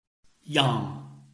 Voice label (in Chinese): yang4, Chinese